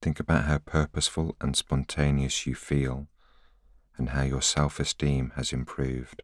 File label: voiceover